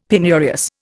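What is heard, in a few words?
"penurious", Indian English; Dictionary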